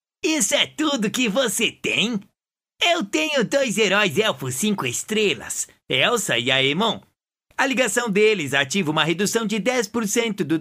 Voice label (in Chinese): 葡萄牙男声, 角色信息流